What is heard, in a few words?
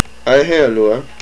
"Bathroom", hawaiian